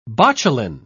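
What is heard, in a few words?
"botulin", British English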